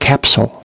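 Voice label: "capsule", English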